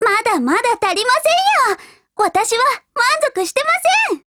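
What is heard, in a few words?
FEED Japanese; MP5Mod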